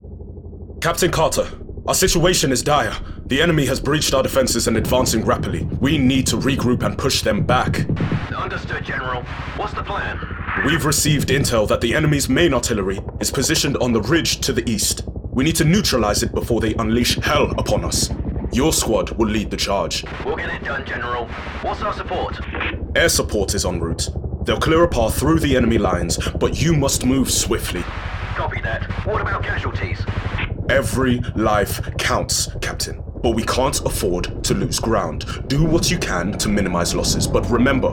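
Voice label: English, male, Gaming, voice over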